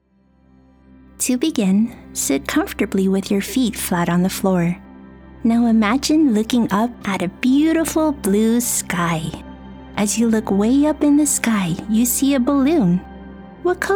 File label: Meditation